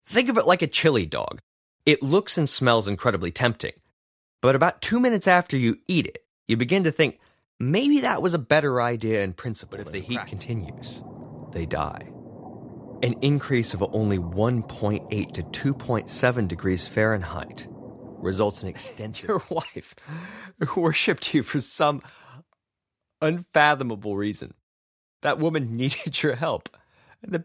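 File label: US English, General; GoLocalise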